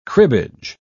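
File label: "cribbage", British English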